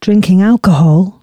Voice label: female, announcements